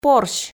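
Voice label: "порсь", Komi, female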